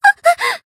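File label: damaged, Japanese; saki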